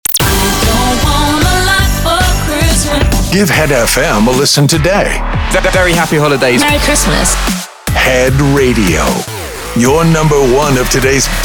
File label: Sweeper Xmas Long